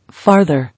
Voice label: "Farther", English

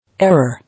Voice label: "Error", English

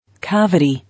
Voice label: "Cavity", English

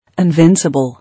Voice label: "Invincible", English

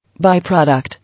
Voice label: "By-product", English

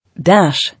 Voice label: "Dash", English

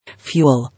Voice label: "Fuel", English

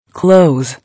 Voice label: "Close", English

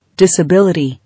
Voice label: "Disability", English

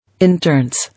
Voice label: "Internce", English

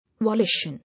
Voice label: "Volition", English

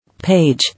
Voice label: "Page", English

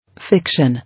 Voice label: "Fiction", English

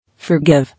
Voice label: "Forgive", English

